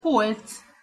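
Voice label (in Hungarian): "polc" Hungarian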